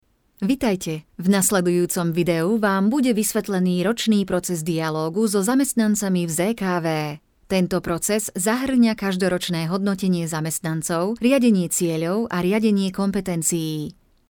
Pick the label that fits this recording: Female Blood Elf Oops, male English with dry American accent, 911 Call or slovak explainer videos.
slovak explainer videos